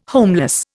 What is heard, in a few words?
"homeless", Indian